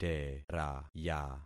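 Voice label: "teraya", concatenated syllables; Affixing experiment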